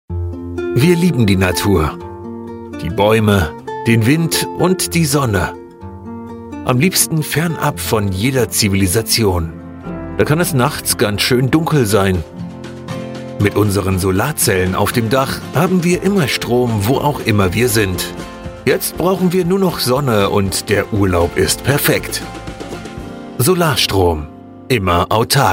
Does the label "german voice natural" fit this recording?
Yes